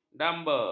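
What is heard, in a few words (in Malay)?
"damba", Malay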